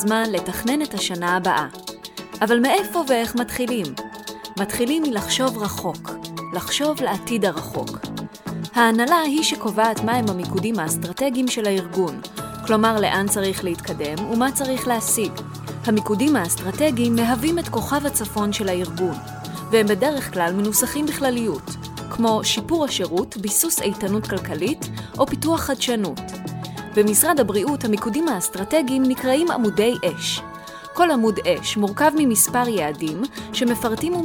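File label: Hebrew, female, eLearning; voice over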